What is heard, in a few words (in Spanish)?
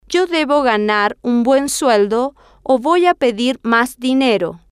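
yo Debo Ganar Un Buen Voy A Pedir Mas Dinero, Spanish, female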